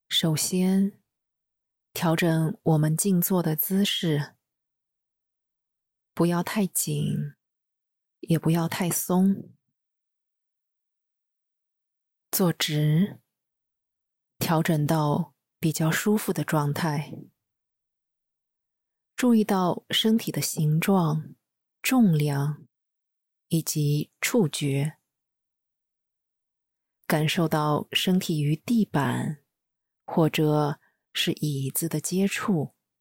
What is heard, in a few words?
Mandarin breath sound body, guided meditations